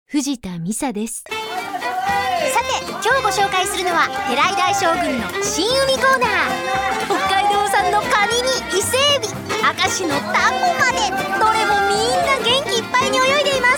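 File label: female radio commercial English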